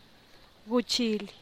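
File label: "guchilih", Teotitlán del Valle Zapotec; knife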